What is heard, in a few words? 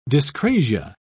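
"dyscrasia", British English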